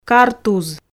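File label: "картуз", Komi, female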